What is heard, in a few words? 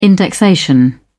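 "indexation", British English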